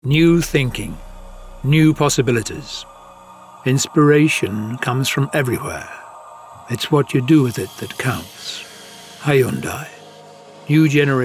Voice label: Car commercial